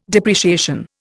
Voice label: "depreciation", Indian